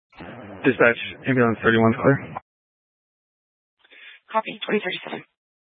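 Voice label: Fire Dispatch, scanner audio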